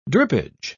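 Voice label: "drippage", British English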